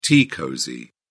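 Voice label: "tea cosy", British English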